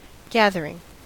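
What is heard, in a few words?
"gathering", American English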